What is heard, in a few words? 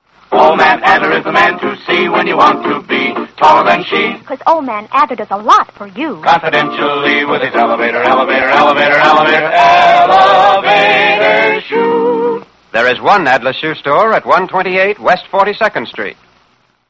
commercial, yiddish radio; adler shoes; the yiddish radio project